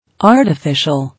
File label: "Artificial", English